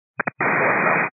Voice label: 122.925 MHz; scanner; radio